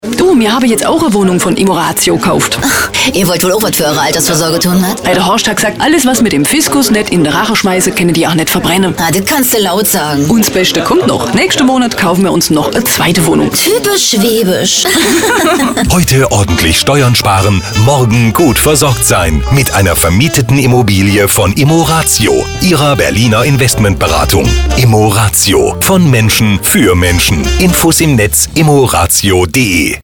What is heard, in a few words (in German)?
Immobilien Radiowerbung